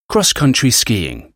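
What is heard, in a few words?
"cross country skiing", English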